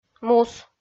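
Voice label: "мус", Komi, female; kpv; audio dict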